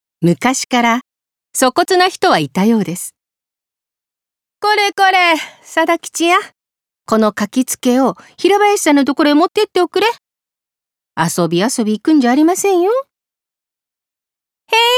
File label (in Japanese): 落語